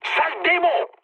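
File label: French enemy detected mood med; War Thunder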